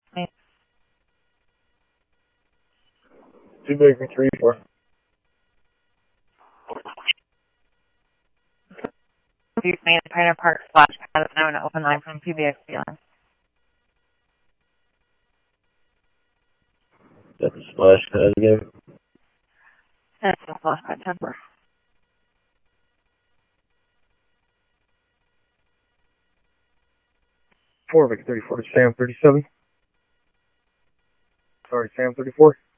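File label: Simulcast police department, scanner audio trunk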